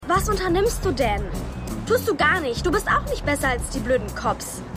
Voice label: Maedchen; Luke Cage

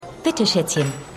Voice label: Kellnerin, Stimmproben

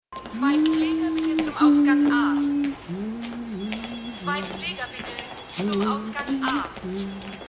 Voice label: Durchsage; Mission Erde

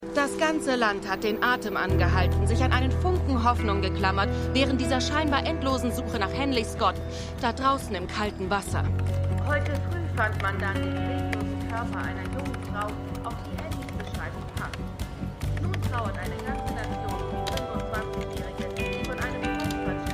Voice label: Reporterin; AlaskaDaily

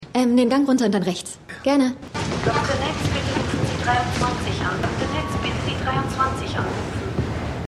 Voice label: Krankenschwester Durchsage; Krankenschwester Cindy; The Noel Diary

